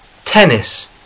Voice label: "tennis", English

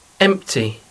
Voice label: "empty", English

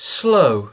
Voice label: "slow", English

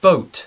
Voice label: "boat", English; hracky

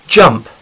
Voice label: "jump", English; sport a hry

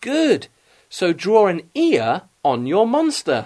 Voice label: "good", English

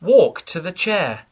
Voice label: "walk chair", English

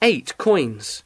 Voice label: "eight coins", English

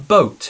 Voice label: "boat", English; ve meste

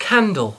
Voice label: "candle", English

